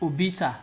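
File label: "obisa", Nigerian Pidgin, male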